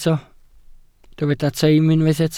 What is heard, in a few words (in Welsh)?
"teim" Welsh